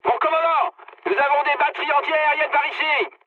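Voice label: damaged aa, mood high, French; War Thunder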